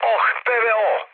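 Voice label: Serbian damaged aa mood low, speech; War Thunder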